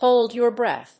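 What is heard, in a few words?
"Hold Your Breath", English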